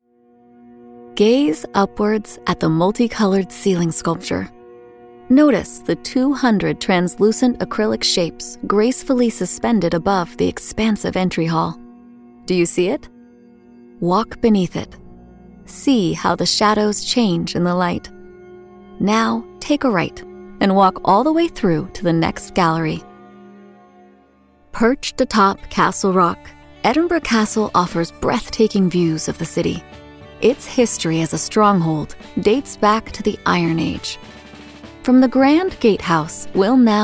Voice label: english american audio guides